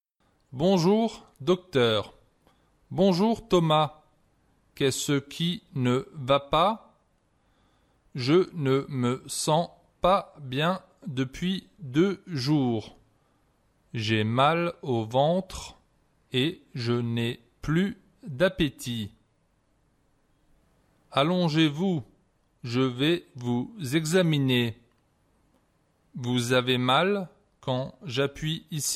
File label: Conversation, French